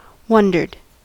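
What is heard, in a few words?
"wondered", American English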